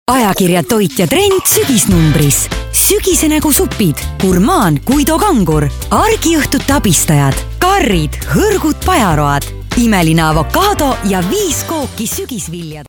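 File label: Estonian, female, commercial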